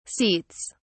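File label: "seats", British English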